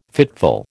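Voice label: "fitful", American English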